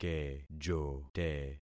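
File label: "kejote", concatenated syllables; Affixing experiment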